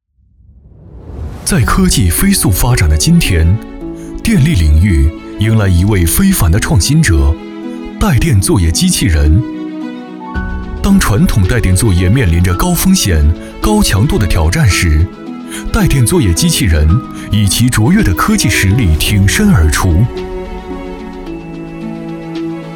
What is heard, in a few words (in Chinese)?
男 科技感; 高空电力之舞